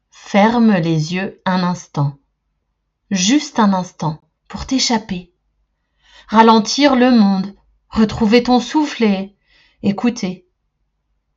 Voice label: voice FR femme 36 ans voice off

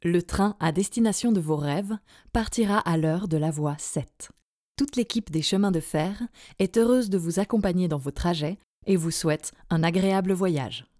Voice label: Pub chemin de fer

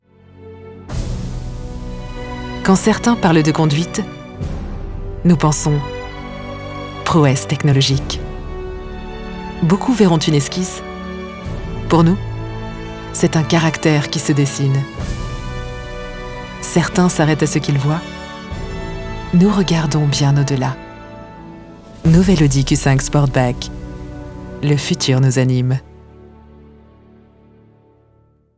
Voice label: Pub tv; Audi Q5; maquette non diffusee

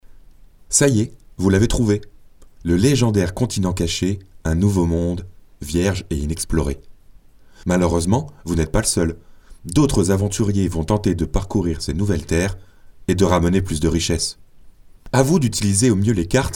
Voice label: voix promo; Explorers

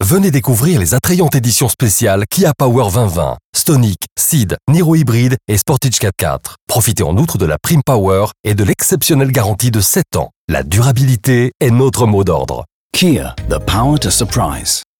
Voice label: Pub radio

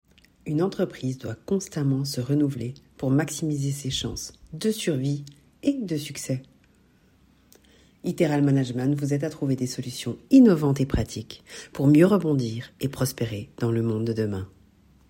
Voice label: voix pub

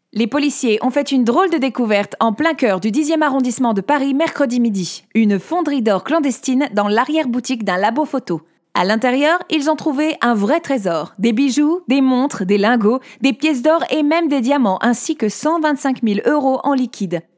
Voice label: Voix neutre

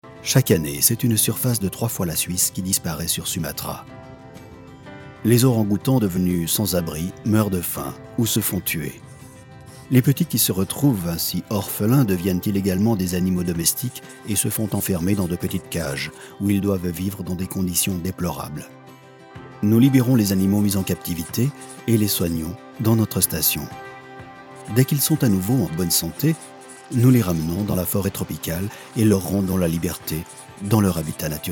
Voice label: documentaire écologique

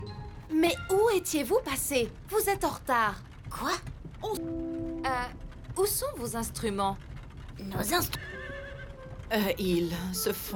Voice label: Dessin Anime

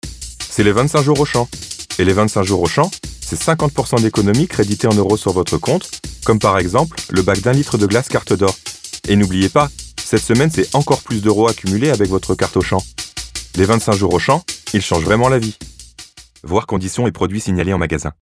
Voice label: pub voix promo; Auchan

